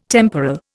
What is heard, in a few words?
"temporal", Indian